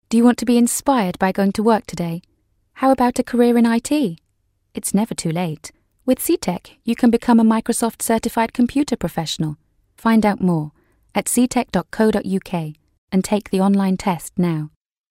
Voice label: commercial; ctec

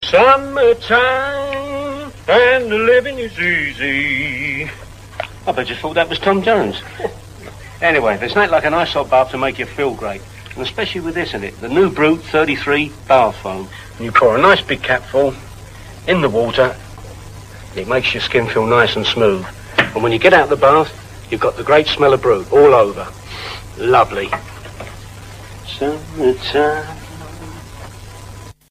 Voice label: advert

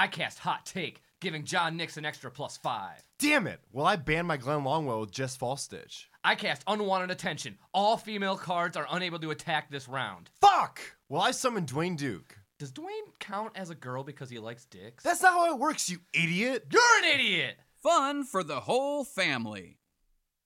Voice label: game commercial

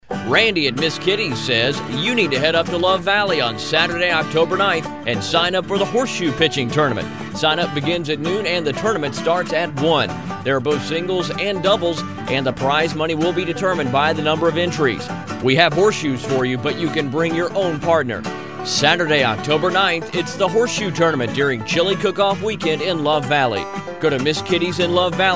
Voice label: radio commercial; Ms Kitty's Horseshoes

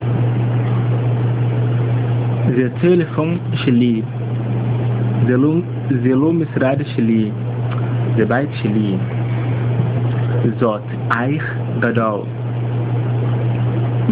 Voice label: hebrew sentences

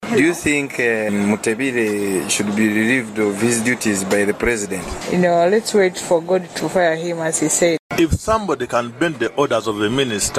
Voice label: vox pop; mutebile should be sacked

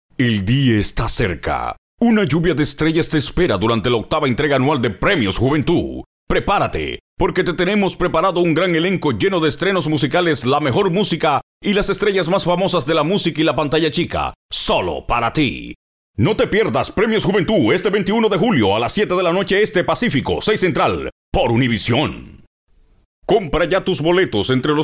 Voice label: voice over; demo premios juventud 2011

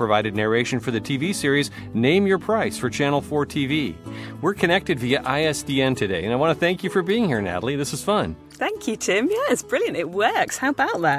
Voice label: uk voice artist in her home studio; via isdn

